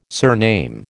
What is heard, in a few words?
"surname", American